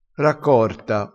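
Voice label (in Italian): "raccorta", dialettale, crespinese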